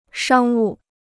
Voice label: "商务", Chinese

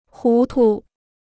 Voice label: "糊涂", Chinese

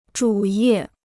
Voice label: "主页", Chinese

